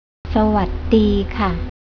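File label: "sawaddi", Thai, female; travel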